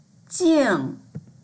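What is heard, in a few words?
"jing4", Mandarin Chinese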